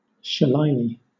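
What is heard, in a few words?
"shillelagh", British English; pronunciations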